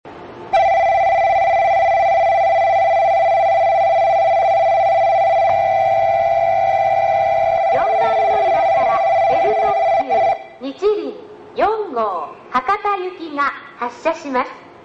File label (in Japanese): 発車放送 Ｌ特急にちりん・博多; 小倉駅; ４番のりば